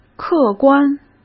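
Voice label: "客观" Mandarin Chinese; chinese-flashcards; hsk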